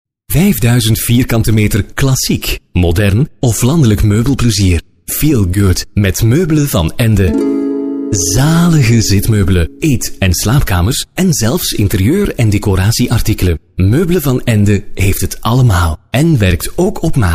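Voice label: flemish male narration; dutch; voice demos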